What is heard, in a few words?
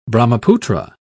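"brahmaputra", British English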